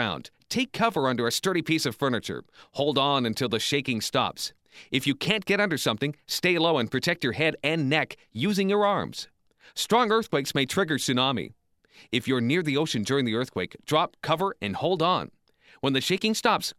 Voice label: ShakeOut 60sec Drill Broadcast English No Sound Effects